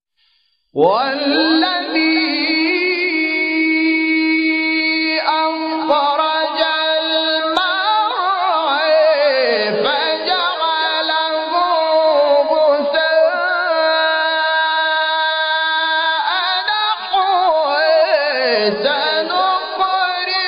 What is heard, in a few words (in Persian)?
فراز زیبا از تلاوت سوره اعلی; استاد محمود شحات انور